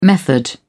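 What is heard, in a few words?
"method", English, British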